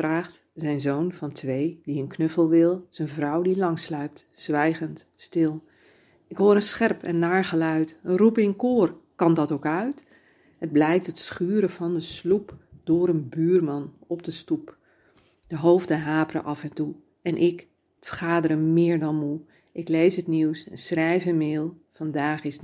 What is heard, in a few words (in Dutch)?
Zoom meeting